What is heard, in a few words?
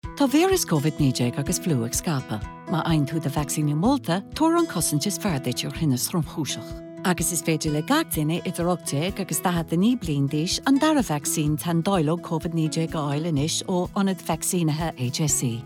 Winter Vaccine Radio Irish VO; HSE Winter Vaccine 30